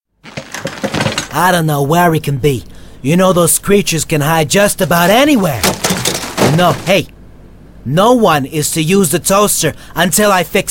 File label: animation male